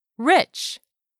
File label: "RICH", english; 3000 words